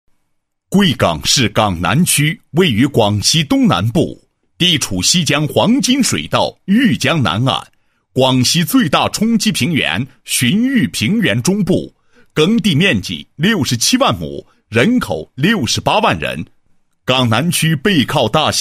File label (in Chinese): 男 地区宣传; 广西港南区 富硒农业之乡